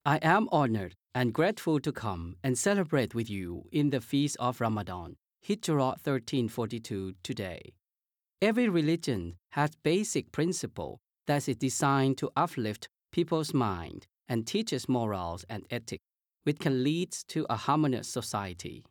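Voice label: Thai General; GoLocalise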